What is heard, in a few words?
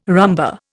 "rumba", Indian